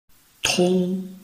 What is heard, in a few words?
"tong1", Chinese